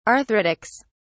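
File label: "arthritics", English, British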